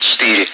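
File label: Speech, Russian, air traffic control; Digits; DCS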